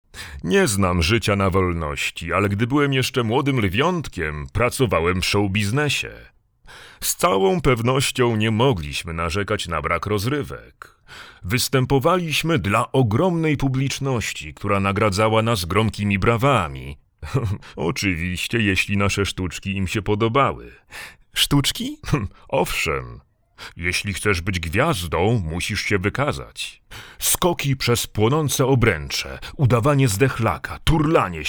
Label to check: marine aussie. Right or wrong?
Wrong